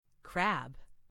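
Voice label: "crab", English; FoodChain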